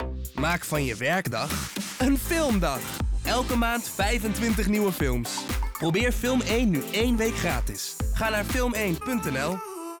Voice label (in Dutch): opgewekt, voice-over; Film 1